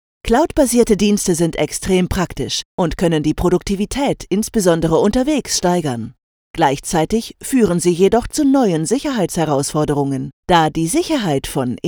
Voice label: elearning german, e-learning